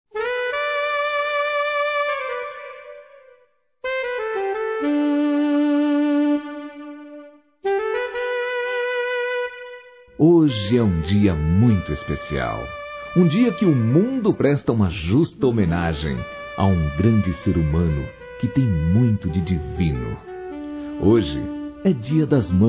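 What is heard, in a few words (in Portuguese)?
VOCÊ É A MELHOR MÃE, Masculino; Dia das Mães; Telemensagens 2017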